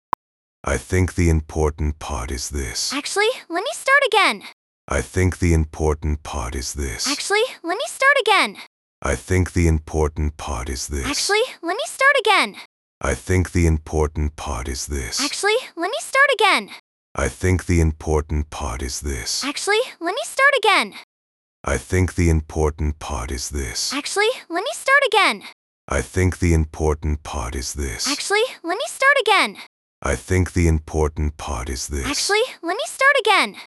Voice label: conversation interruptions

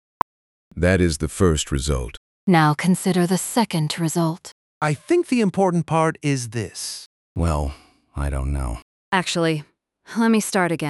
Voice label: conversation

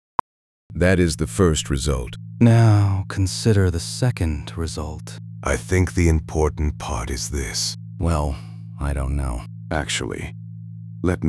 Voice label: speech music bed

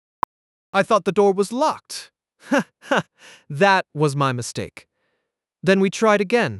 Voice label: laughter between sentences

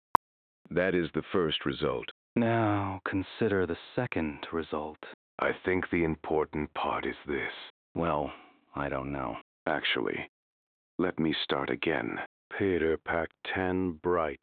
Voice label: speech bad mic

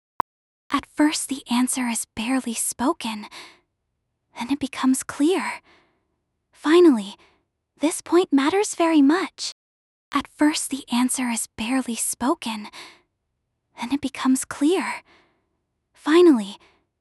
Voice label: speech dynamic

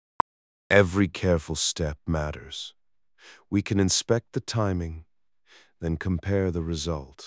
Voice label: slow deliberate

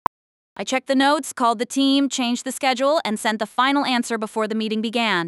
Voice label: very fast conversation

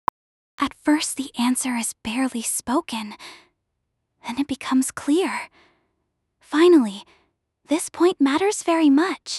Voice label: dynamic quiet to loud

